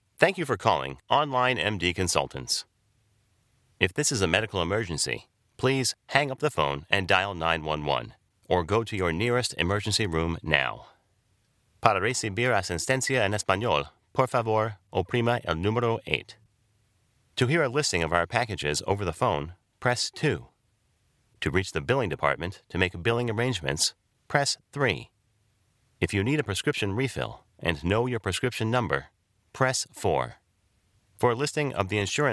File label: English American ivr